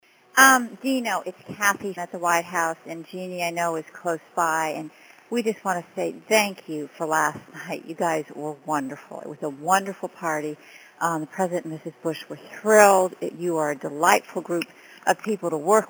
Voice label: voicemail; Whitehouse